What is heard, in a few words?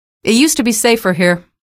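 citizen remarks, female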